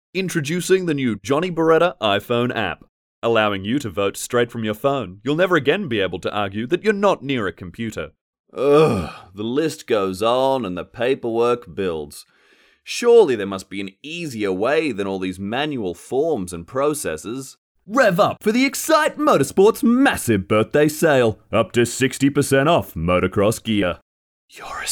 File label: Dry VO